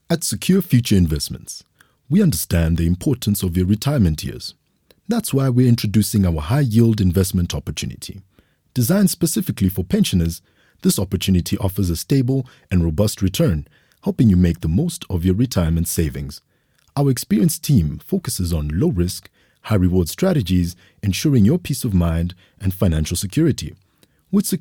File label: CORPORATE